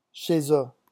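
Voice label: "chez eux", French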